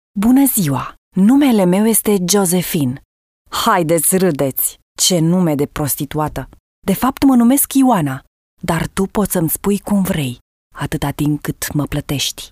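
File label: romanian female voice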